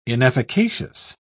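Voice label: "inefficacious", British English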